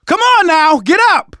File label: revive friend loud, survivor voice; manager; Left 4 Dead 2; left4dead2 dlc2